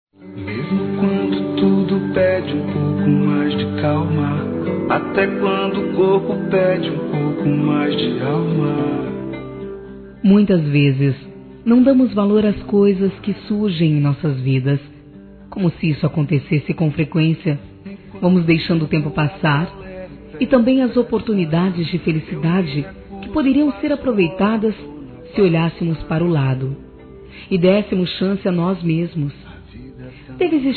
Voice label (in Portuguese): AMOR NÃO CORRESPONDIDO, Telemensagens, Fem